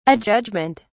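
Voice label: "adjudgement", British English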